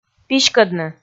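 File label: "писькӧдны", Komi, female; audio dict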